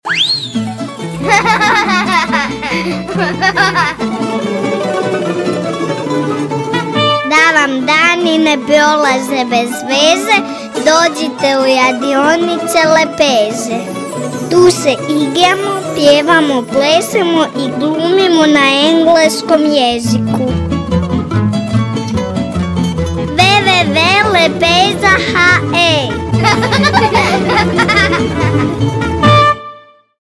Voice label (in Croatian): reklama; Lepeza umjetnička organizacija; FOLKLORNE RADIONICE